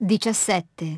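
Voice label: "seventeen", English; iOS 4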